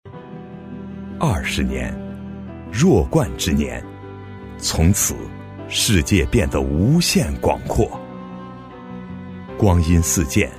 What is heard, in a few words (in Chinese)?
男 企业宣传; 昌发